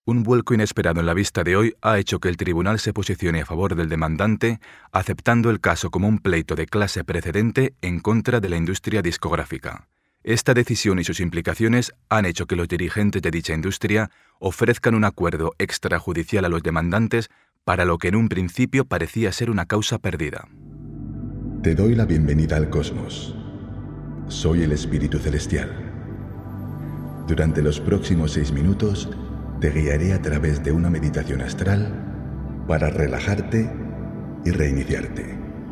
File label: Spanish Narrative Voice